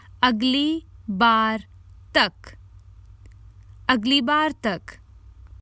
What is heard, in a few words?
Common Hindi Phrases for travel Til next time